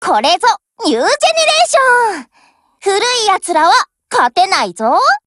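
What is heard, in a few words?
WIN Japanese; GF Alarm; UMP40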